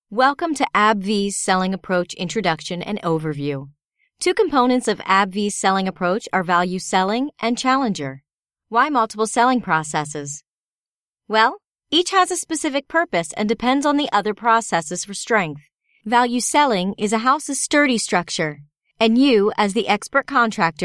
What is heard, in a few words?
Corporate Training